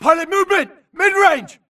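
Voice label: grunt target Player Moving Mid; imc; Titanfall 2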